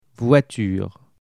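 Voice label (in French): "voiture", French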